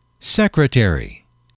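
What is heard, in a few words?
"secretary", English, male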